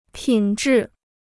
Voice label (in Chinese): "品质", Chinese